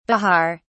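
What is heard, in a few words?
"bihar", British English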